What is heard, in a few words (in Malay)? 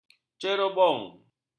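"cerobong", Malay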